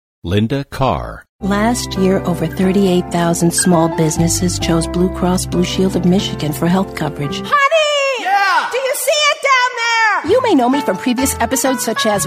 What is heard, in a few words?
VO DEMOS - Women